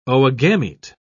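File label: "oogamete", British English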